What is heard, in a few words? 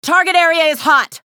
chatter negative; athena; respawn